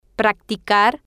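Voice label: "practicar", Spanish, female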